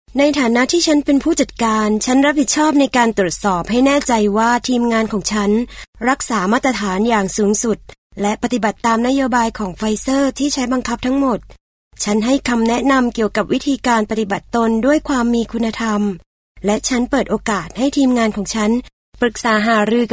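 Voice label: Thai, female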